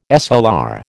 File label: "SLR", American